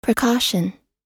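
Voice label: "precaution", American English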